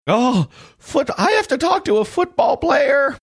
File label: funny; oh foot i have to talk to a bootball player